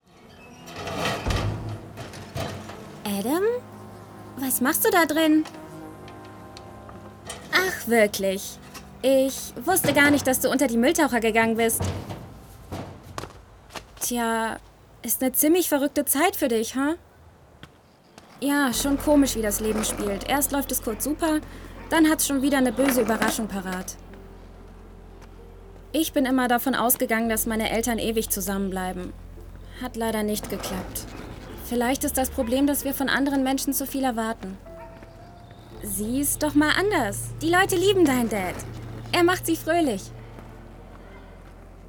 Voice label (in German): Synchron Animation; voice